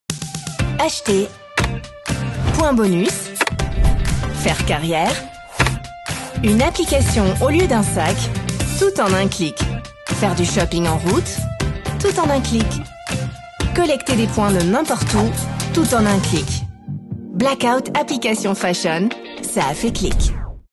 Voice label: franzoesisch, Commercial (Werbung), French; Spot; Jeans Fritz Spot (französisch)

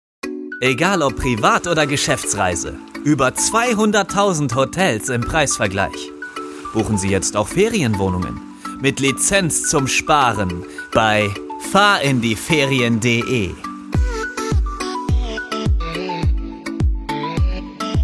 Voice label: Werbung dynamisch erfrischt positiv; LOFT VOICES

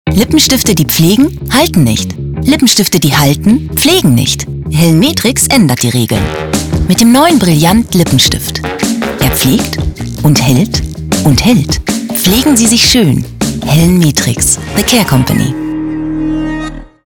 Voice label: Werbung Lippenstift

